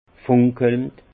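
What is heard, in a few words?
"funkelnd", Alsatian, Haut Rhin, étincellant; vin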